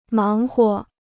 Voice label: "忙活", Chinese